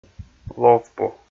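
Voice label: "ловпу", Komi, male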